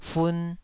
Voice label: "fun2", taiwanese syllable